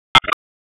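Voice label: Police Spec Ops Tac, radio; wake; p25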